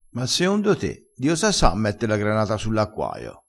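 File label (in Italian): "di osa sa", dialettale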